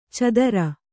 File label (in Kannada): "ಚದರ", Kannada